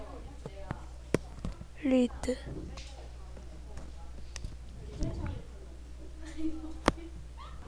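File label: "lead", spoken word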